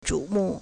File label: "zhu3 mu4", Chinese